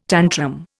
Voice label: "tantrum", Indian